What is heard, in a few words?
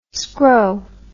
"scrow", English